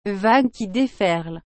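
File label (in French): "vagues qui déferlent", French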